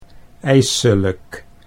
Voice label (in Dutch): "ijselijk", Dutch